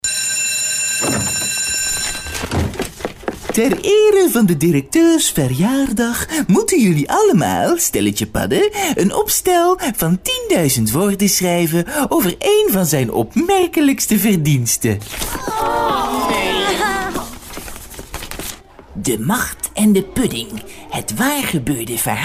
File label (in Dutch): stemdemo karakters